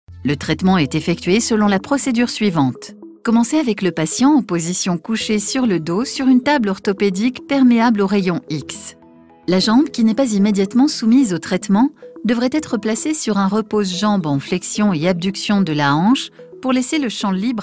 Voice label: medical, voix off elearning; showreel